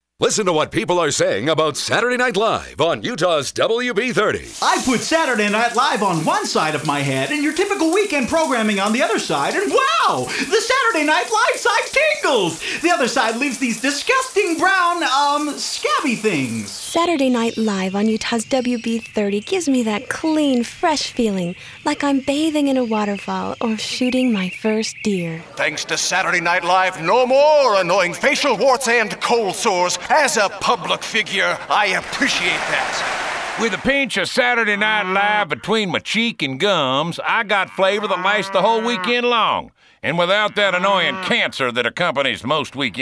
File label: voice-over; saturday night live